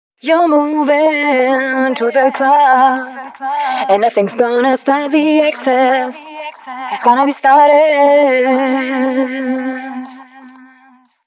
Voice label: female d# 119,5, hooklines, Dance Ultra Voice; hooklines 100-120 BPM; Mutekki Media; Dance Ultra Voice VOL-1

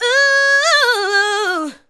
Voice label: ADLIBS 90; Ueberschall; Jam Box

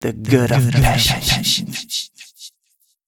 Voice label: male, spoken hooks

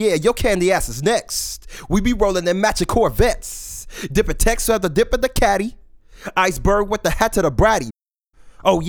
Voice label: Matching Corvettes, MCs, Hip-Hop; Sonic Foundry (Sony Creative Software); Hydroponic Hip-Hop

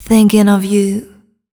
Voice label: fem spo, Vocals

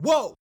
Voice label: "Wow", Yamaha DJX 2B

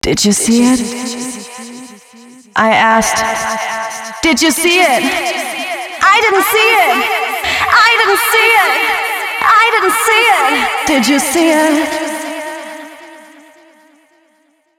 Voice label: female spoken hooks, dance ultra voice

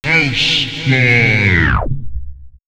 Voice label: "House", house vocals; X-Static Goldmine

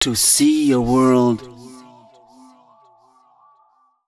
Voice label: male, spoken hooks

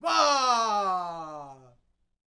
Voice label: MEXICO VOICE, MEXICAN; ILIO; Vocal Planet VOL-5

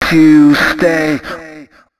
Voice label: male d# 130; FX; Mutekki Media; Dance Ultra Voice VOL-1

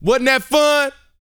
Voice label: BOAST F; Vocal Planet; R&B Dance

